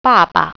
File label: "father", Mandarin